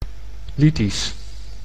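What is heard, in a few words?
"lytisch", Dutch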